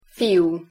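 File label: "fiu", Hungarian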